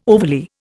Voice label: "overly", Indian

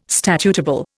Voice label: "statutable", Indian

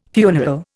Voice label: "funeral", Indian

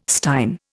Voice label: "stein", Indian

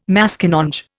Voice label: "Maskinonge", Indian

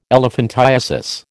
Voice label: "elephantiasis", American

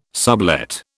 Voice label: "sublet", British

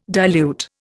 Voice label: "dilute", Indian

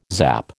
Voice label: "zap", American

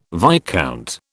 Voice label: "viscount", British

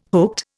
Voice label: "hooked", Indian

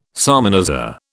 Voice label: "salmanazar", British

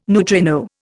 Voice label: "neutrino", Indian

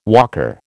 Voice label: "walker", American

